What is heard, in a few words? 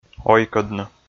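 "ойкӧдны", Komi, male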